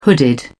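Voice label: "hooded", British English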